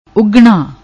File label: "Uggna", Punjabi; ਉੱਗਣਾ